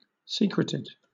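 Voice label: "secreted", British English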